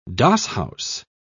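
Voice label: "doss-house", British English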